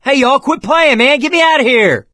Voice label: call for rescue, survivor voice; source engine; left 4 dead 2